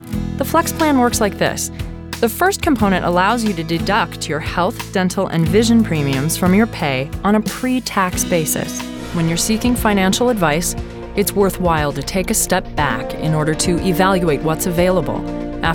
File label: narrative